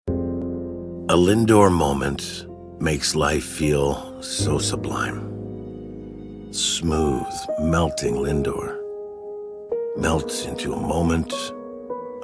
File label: Voice Demo